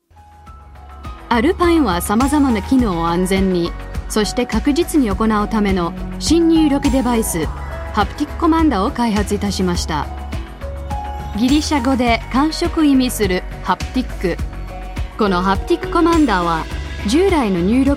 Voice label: Japanese; USA

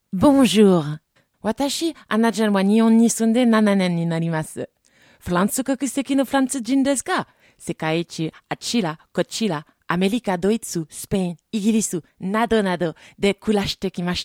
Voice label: Japanese; Europe

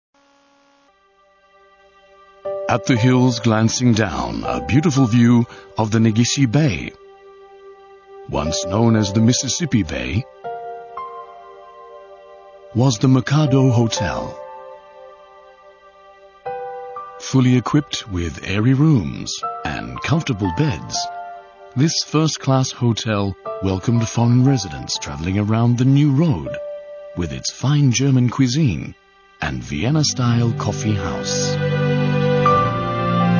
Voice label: narrator UK M

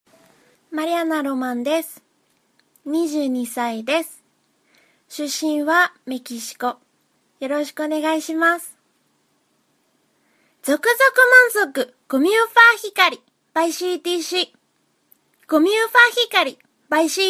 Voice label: Japanese; Europe

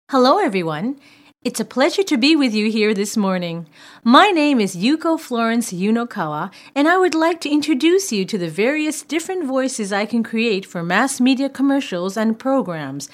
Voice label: Charactor, narrator USA F

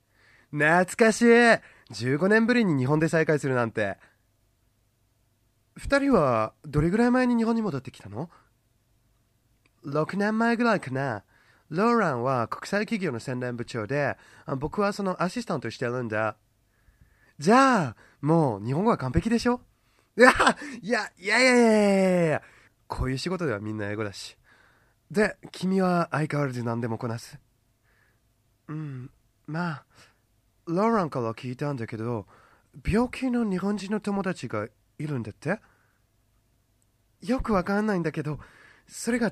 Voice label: Japanese; jk narrator

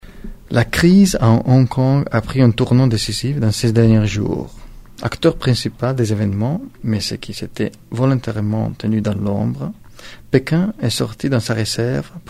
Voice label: French; Europe

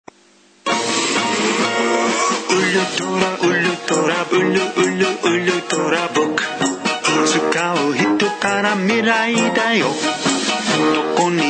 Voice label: Japanese

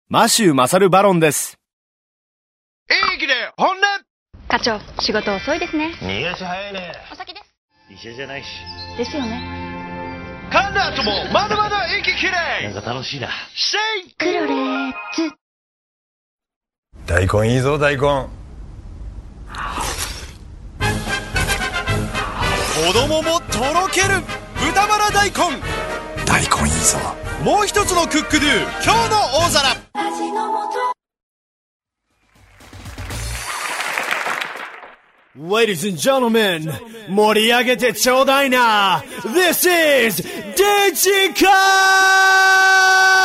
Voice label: japanese; USA

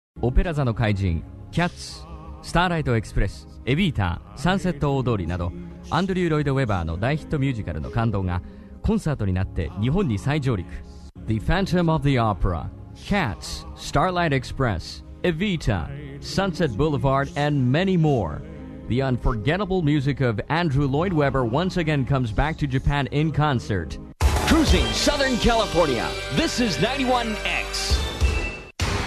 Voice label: japanese, USA, M

